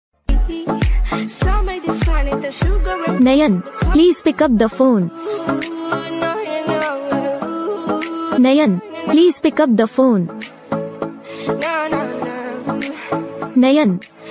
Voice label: name ringtone nayan call ringtone hindi ringtone